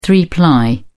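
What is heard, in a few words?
"three ply", British English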